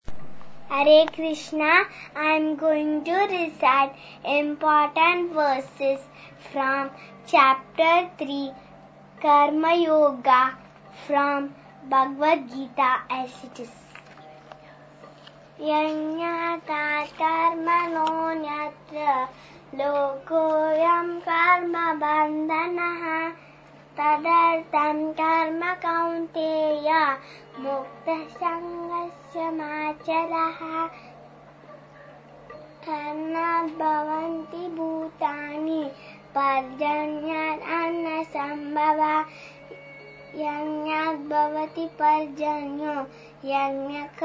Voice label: Kids 5 year old Recitation Alone; Sloka recitation; Imp Verses; Bhagavad Gita; BG Ch03